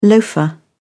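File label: "loafer", British English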